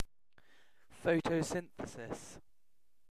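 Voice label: "photosynthesis", British English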